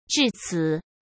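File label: "至此", Mandarin Chinese; chinese flashcards; hsk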